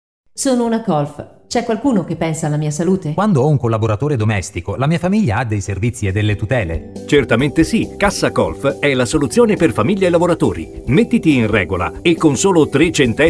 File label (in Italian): spot radio; CassaColf SpotRadio30sec